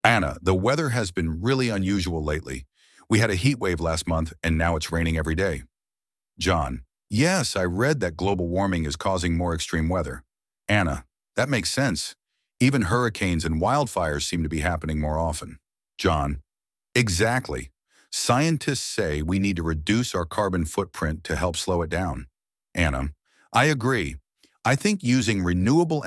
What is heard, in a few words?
dialog; weather; recent global weather changes